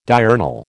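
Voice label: "diurnal", American English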